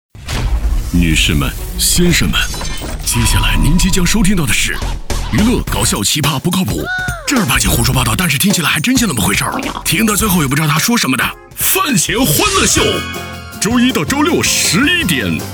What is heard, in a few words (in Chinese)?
电台频宣 清新; 饭前欢乐秀